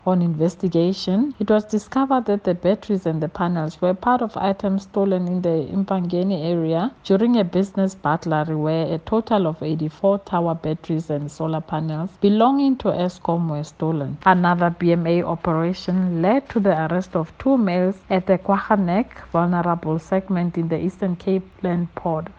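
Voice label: English; SA Ports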